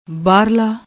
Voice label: "baahrlaa", Punjabi